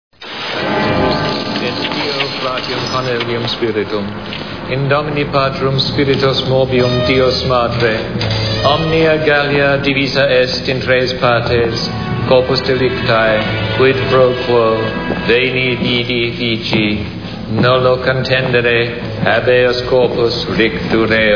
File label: latin